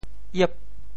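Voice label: "iep8", Teochew; 烨; yè; 潮州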